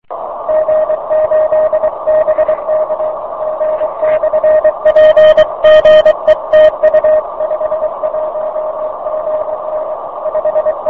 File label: qso